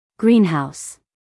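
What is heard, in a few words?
"greenhouse", American English, female; everyone-can-use-english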